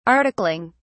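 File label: "articling", English, British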